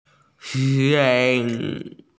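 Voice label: "sai1", Chinese, F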